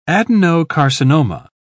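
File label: "adenocarcinoma", British English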